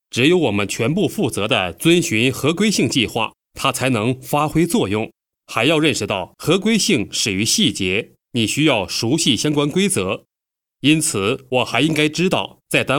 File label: Mandarin male; Foreign language voice over